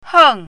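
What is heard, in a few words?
"heng4", Mandarin Chinese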